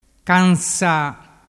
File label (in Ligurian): "cansa", dialettu